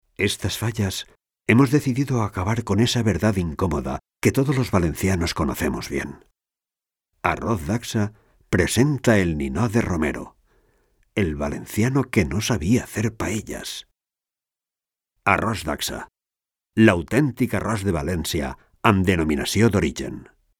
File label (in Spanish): narración